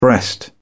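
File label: "breast", British English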